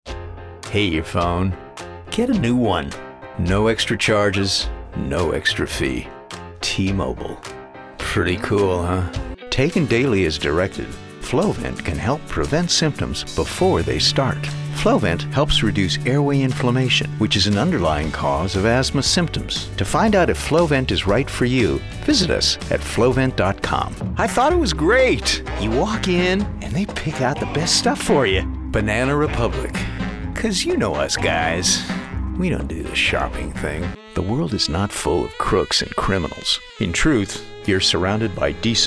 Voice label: Commercial Sampler